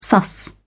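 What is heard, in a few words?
"suss", British English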